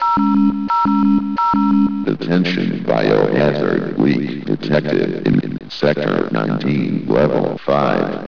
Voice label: announcement; sven; sector19